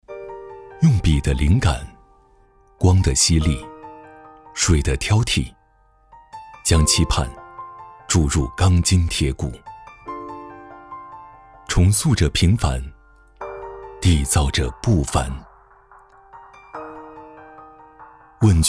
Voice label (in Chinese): 男 宣传片 短片